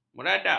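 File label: "meradak", Malay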